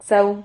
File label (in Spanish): "xau", Silabas